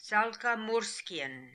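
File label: "Salkamourskian", pronunciations; Fourth Way; Beelzebub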